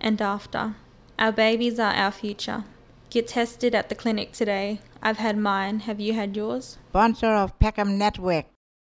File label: Syphilis AD